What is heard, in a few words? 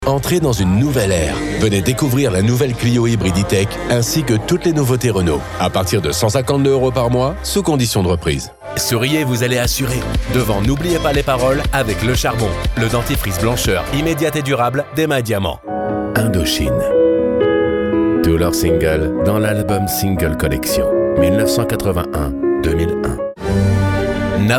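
French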